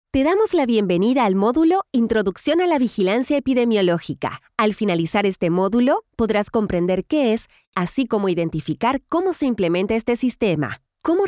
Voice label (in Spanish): E-Learning Latam Neutro